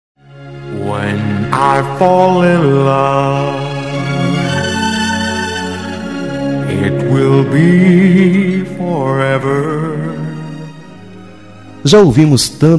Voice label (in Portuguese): ROM MADURA MASC